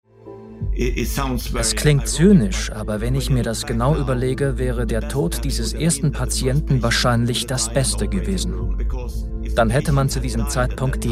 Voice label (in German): Podcast True Crime dokumentar